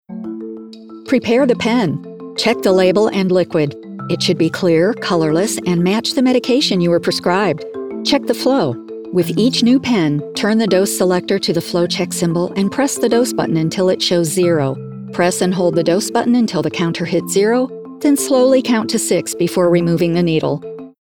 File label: Warm Medical E-Learning; E-Learning Demo